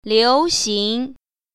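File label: "liu2xing2", Mandarin Chinese Tone Pair Drills; 流行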